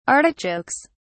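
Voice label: "artichokes", English, British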